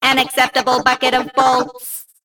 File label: Female Mech Play